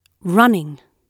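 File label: "running", British English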